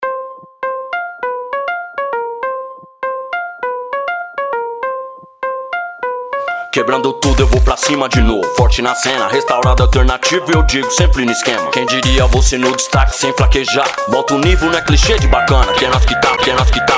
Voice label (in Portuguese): rapper mix bounce